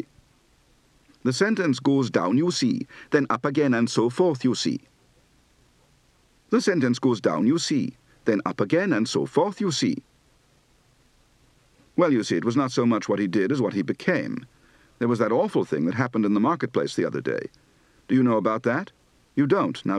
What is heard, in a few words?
Welsh, Accent